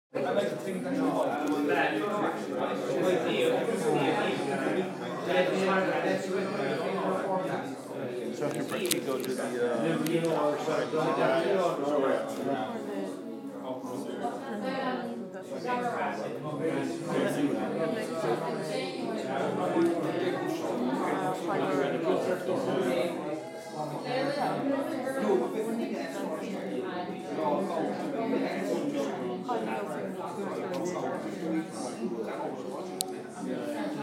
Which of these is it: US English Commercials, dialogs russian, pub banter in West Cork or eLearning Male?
pub banter in West Cork